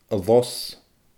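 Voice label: "a los", Scottish Gaelic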